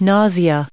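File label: "nausea", English, us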